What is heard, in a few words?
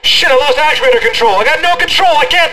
marine, english; Quake 4 Alpha; m07 race